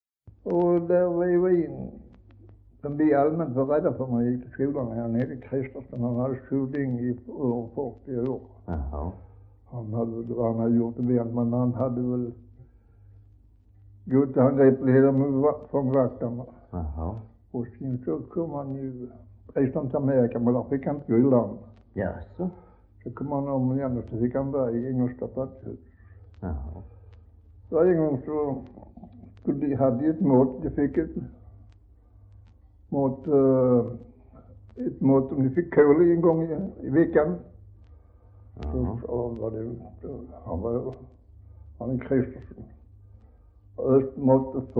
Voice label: Västra Ingelstad SK, dialekter; Folkminnen

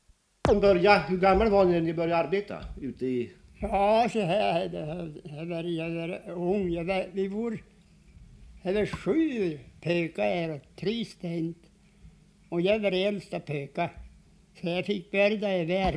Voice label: dialekter; Folkminnen; Svenska sagor

